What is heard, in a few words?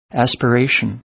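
"aspiration", American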